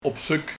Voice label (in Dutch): "Op zuk", Op zoek